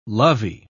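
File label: "lovey", British English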